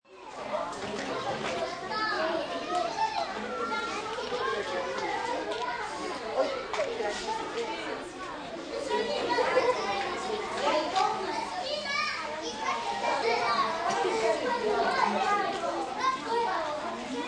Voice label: Ambience Daycare, Daycare or playroom, Bolivia, 20+ children, kids chattering, human voice; language not too discernible, stereo